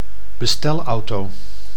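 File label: "bestelauto", Dutch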